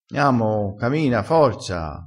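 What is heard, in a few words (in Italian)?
"camina", vocabolario dialettale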